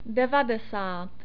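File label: "devadesát", Czech; numbers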